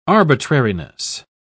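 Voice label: "arbitrariness", British English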